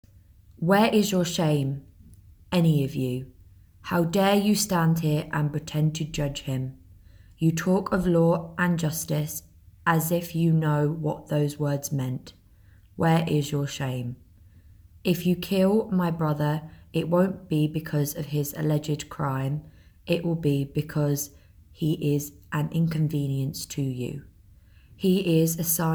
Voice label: Female Monologue; Murders at Argos